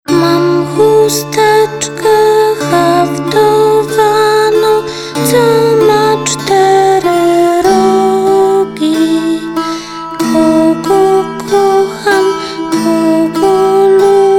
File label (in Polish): spot radiowy; depresja dzieci; chusteczka